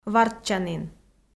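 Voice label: "вартчанін", Komi, female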